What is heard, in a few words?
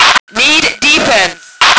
fem defense, radio; lmctf